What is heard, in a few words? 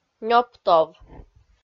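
"нёптов" Komi-Zyrian, female; kpv audio dict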